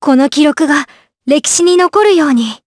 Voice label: Vox Skill jp; kingsraid; voices heroes jp